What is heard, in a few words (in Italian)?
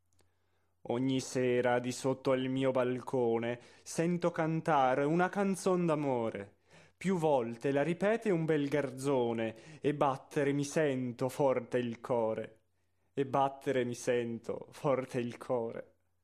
espressivo